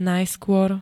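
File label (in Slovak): "najskôr", Slovak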